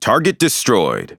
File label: Speech Pilots Bomber Tangodown, EN; World Of Warships